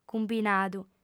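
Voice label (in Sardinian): "cumbinadu", female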